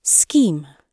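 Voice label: "scheme", British English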